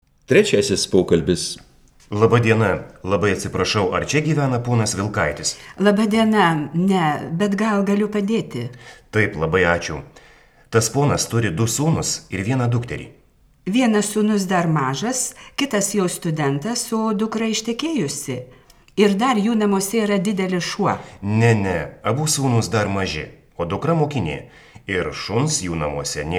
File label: Dialog